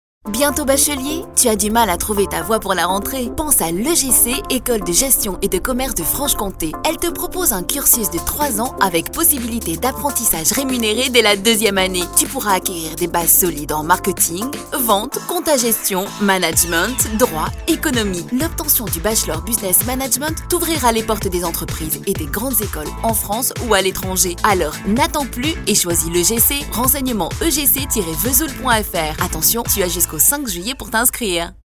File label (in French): pub, comédienne voix off; EGC